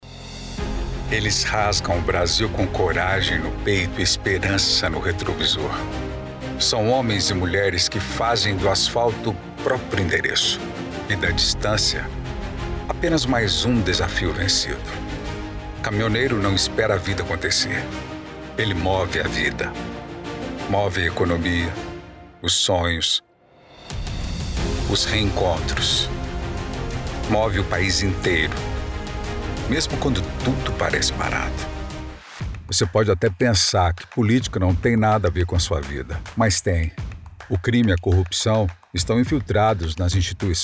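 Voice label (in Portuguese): Manifesto Voz Grave, Locução Publicitária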